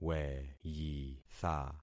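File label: "weyitha", concatenated syllables; Affixing experiment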